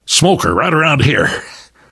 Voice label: heard smoker, survivor voice; source engine; left 4 dead 2; namvet

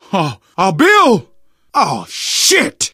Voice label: grief, survivor voice; Left 4 Dead 2; Source Engine; video games; manager

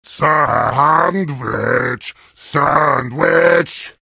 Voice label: heavy sandwich taunt, vo

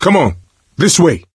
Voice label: misc directional, coach, survivor voice

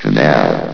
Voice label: "canal", vox; source engine; hl1; video games

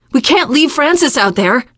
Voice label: inside saferoom, teen girl; survivor voice

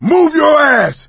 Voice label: emphatic go, survivor voice; source engine; left 4 dead 2

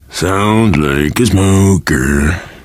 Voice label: heard smoker, player survivor voice biker; source engine; left 4 dead 2

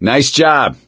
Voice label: nice job, gambler, survivor voice; source engine; left 4 dead 2

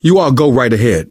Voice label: lead on, survivor voice

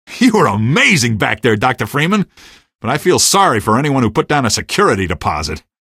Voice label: tenant, male; hl2; streetwar; tunnel; Source engine

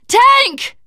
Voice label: warn tank, teen girl, survivor voice; source engine; left 4 dead 2

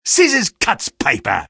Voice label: sniper taunt rps win

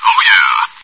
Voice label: oh yea, bot; Source Engine; cstrike

